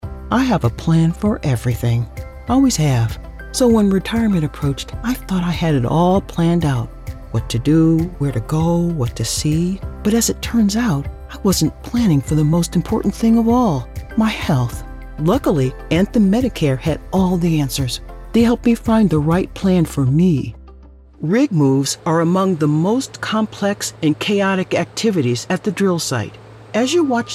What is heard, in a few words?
Corporate Narration